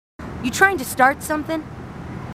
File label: marines female, marine tough